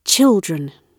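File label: "children", British English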